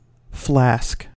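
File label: "flask", US English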